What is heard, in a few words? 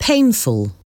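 "painful", English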